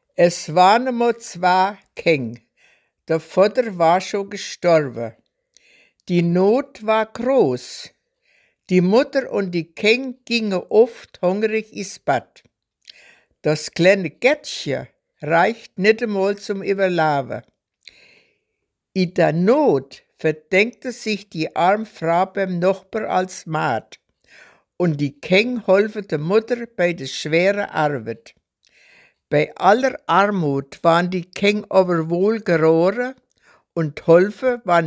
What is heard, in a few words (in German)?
Schatzsucher, Mundart Hessen